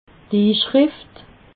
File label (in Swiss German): "inschrift", alsace